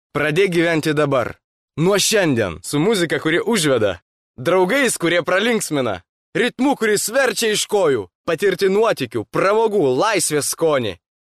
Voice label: lithuanian male

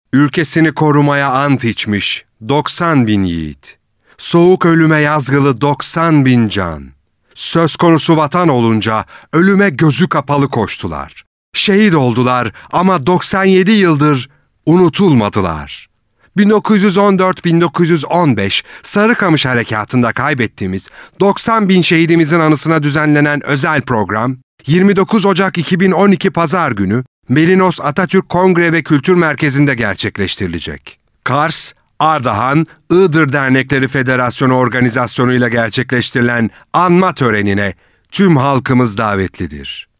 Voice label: turkish male

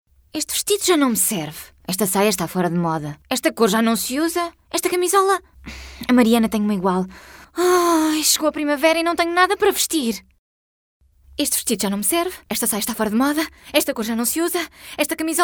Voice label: portuguese female

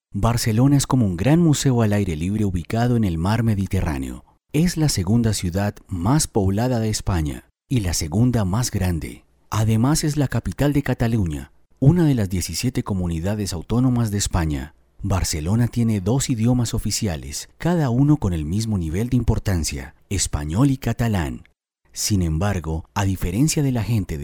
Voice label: spanish la male

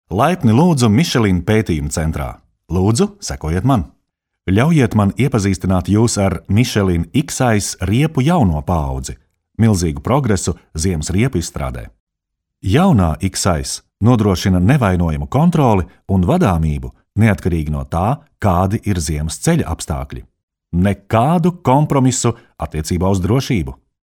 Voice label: latvian male; dictor

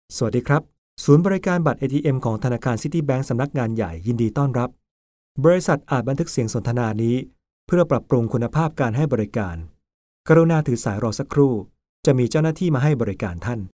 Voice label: thai male

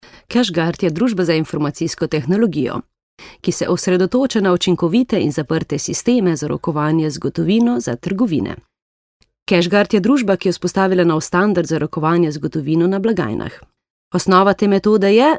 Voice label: slovenian female

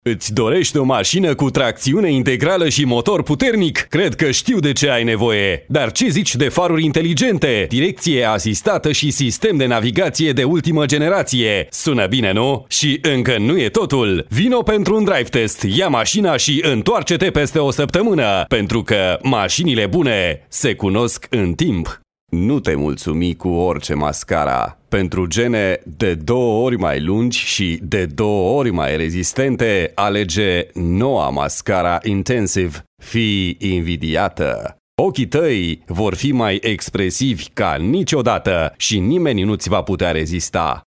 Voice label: romanian male

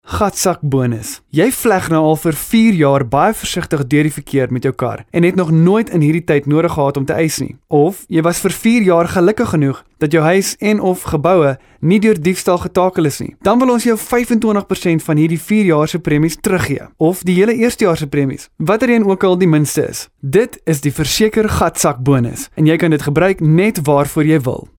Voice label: afrikaans male; dictor

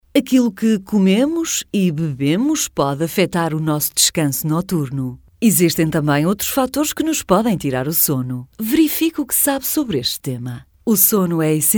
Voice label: portuguese female

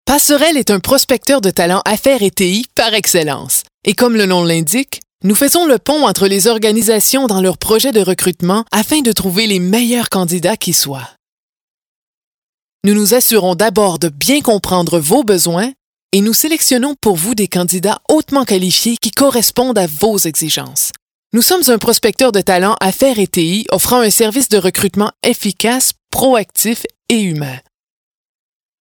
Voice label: french canadian female; dictor

